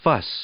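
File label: "fuss", English; noun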